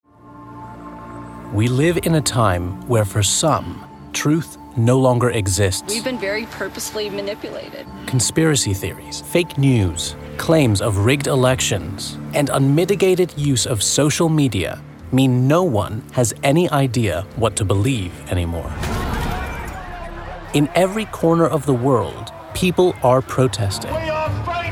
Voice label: male english usa documentary narration compilation